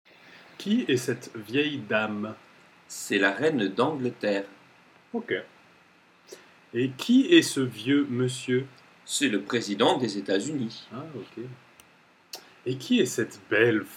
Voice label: dialogue, French; masculine and feminine adjectives